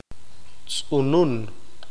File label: "colibri", Q'eqchi'